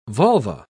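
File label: "volva", British English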